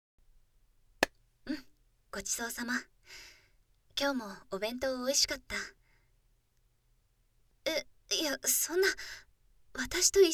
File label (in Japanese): ごちそうさまとマッサージ, SEあり環境音なし